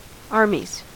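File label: "armies", US English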